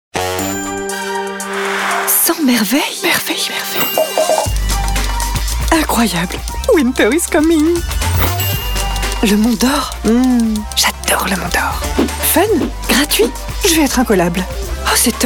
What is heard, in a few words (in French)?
Publicite TV; Artips